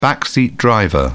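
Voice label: "backseat driver", British English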